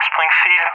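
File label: "Springfield", English speech; CallSign; Allied Flight; DCS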